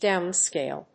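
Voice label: "downscale", English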